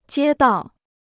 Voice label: "街道", Chinese

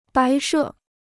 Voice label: "摆设", Chinese

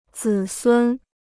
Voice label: "子孙", Chinese

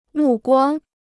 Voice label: "目光", Chinese

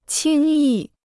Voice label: "轻易", Chinese

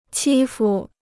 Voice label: "欺负", Chinese

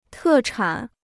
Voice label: "特产", Chinese

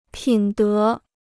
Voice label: "品德", Chinese

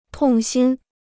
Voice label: "痛心", Chinese

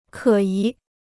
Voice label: "可疑", Chinese

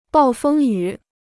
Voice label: "暴风雨", Chinese

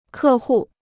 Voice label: "客户", Chinese

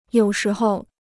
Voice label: "有时候", Chinese

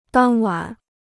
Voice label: "当晚", Chinese

